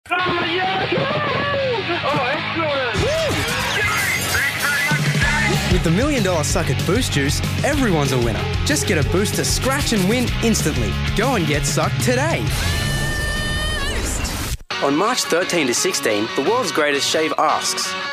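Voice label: English Australian, male, commercial; voice demos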